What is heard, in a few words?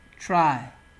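"try" Nigerian Pidgin, male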